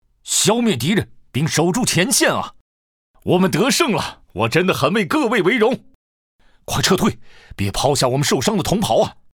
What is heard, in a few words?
chinese mandarin video games